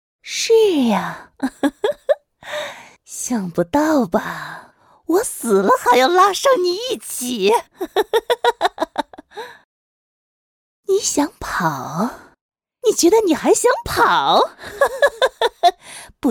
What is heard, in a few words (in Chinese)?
剧本杀癫狂角色